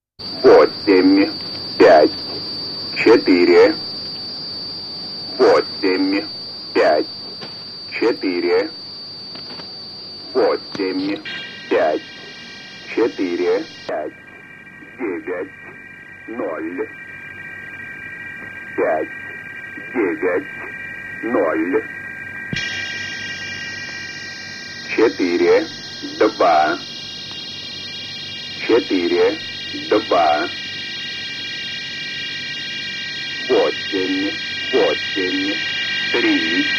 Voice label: the russian man, northern russian voice; irdial